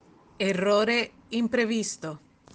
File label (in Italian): suono della voce interiore